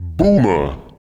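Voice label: vocal male, Dance Vocals, spoken words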